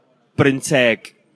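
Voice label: "Brynteg", Welsh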